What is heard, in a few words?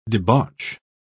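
"debauch", British English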